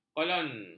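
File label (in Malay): "kolon", Malay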